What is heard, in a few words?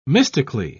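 "mystically", British English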